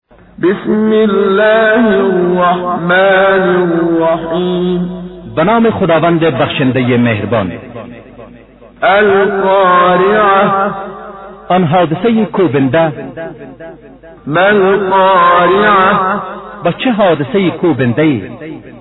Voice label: Surah Farsi; Menshay Translation